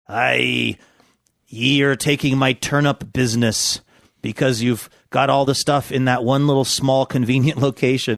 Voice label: saying aye ye are taking my turnip business pirate farmer